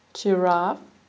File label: "giraffe", au